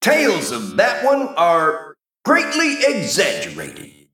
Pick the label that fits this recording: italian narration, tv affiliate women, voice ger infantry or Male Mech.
Male Mech